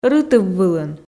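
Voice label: "рытыввылын", Komi, female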